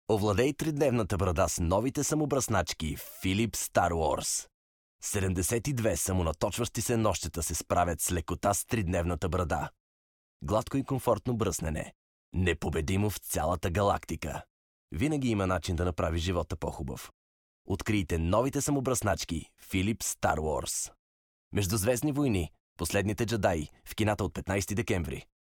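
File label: bulgarian male commercial; voice demos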